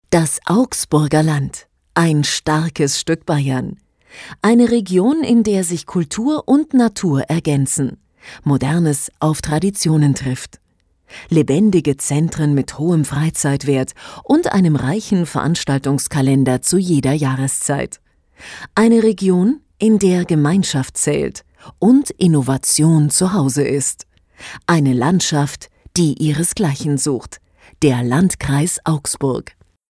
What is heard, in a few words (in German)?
Doku